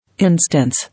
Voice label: "Instance", English